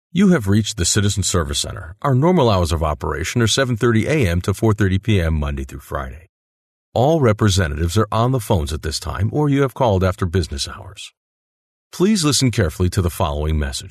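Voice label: American English, male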